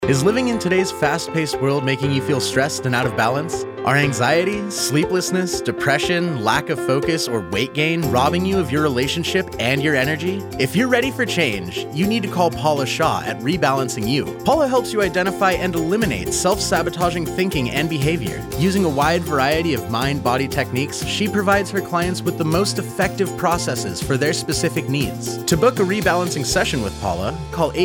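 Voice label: Ad, Counseling Practice, Commercial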